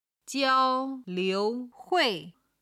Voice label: "jiao1 liu2 hui4", chinese

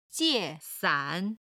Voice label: "jie4san3", chinese

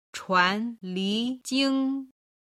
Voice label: "chuanlijing", Chinese

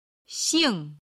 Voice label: "xing4", chinese

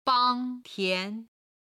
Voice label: "bang1tian2", chinese

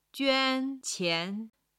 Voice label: "juan1 qian2", chinese

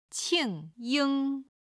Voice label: "qing4 ying1", Chinese

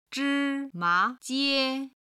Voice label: "zhi1ma2jie1", chinese

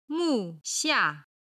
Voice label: "mu4 xia4", Chinese

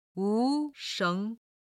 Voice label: "wu2sheng2", chinese

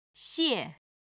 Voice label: "xie4", Chinese

